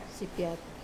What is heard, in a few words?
"piat", raw, Wamesa